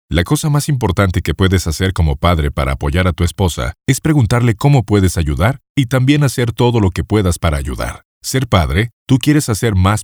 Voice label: spanish latin american documentaries